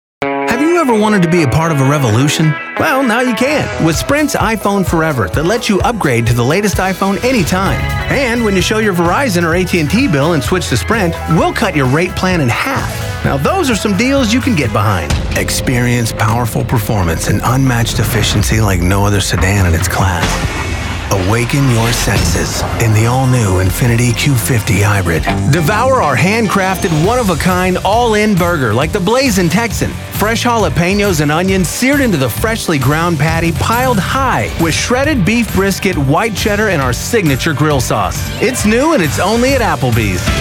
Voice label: Commercial